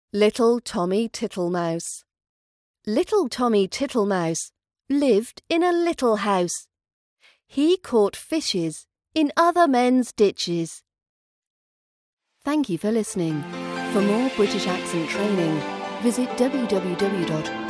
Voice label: rhyme little tommy tittlemouse british accent practice